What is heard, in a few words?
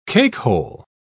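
"cakehole", English, British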